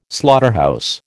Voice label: "slaughterhouse", American